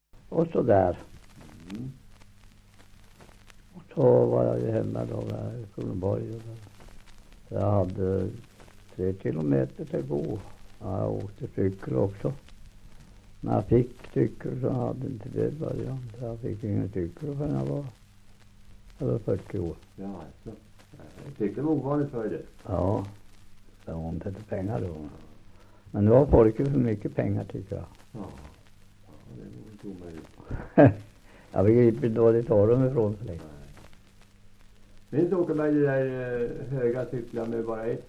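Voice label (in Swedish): Hölö Södermanland, dialekter, svenska sagor; Folkminnen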